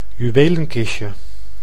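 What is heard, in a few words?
"juwelenkistje", Dutch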